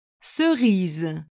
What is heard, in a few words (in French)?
"cerise", French